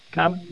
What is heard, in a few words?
Voice Acting, es